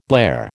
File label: "blare", American